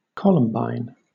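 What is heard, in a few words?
"columbine", British English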